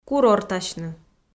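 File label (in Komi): "курортасьны", Komi-Zyrian, female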